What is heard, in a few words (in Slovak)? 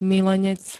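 "milenec", Slovak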